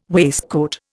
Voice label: "waistcoat", Indian

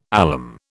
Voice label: "alum", British

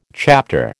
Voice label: "chapter", American

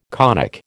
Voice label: "conic", American

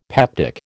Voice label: "peptic", American

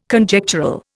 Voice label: "Conjectural", Indian

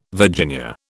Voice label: "Virginia", British

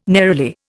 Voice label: "neroli", Indian

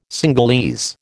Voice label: "Cingalese", American

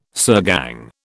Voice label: "sirgang", British

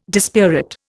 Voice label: "dispirit", Indian

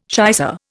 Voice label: "shicer", Indian

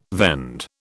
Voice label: "vend", British

